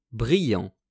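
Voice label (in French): "brillant", French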